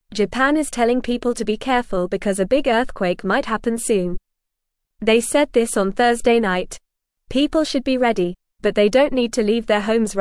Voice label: English FAST Reading; Japan Warns People About Possible Big Earthquake